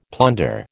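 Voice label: "plunder", American English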